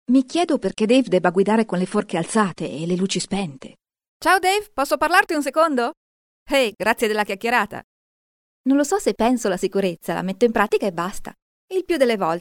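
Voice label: Characters Mix Young Adult Female, Italian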